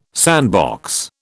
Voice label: "sandbox", British